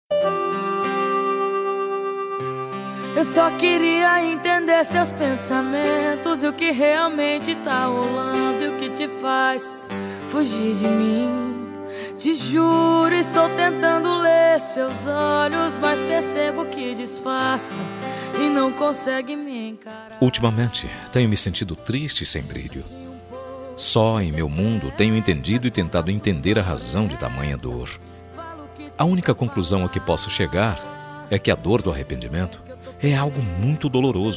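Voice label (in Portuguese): DESCULPA ROMANTICA male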